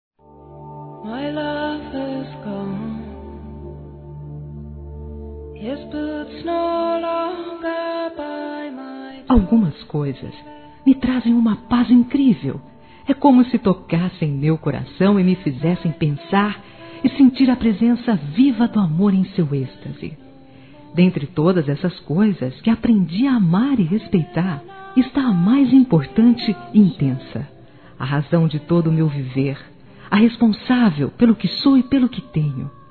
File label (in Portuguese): MÃE F; Telemensagens 2017